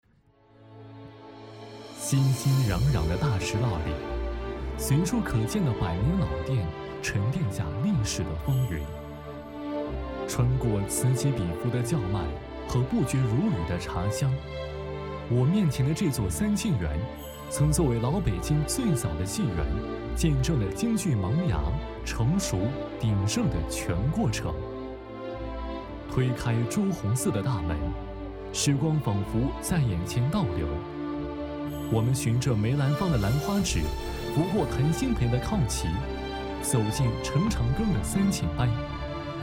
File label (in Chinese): 男 节目导视; 角来了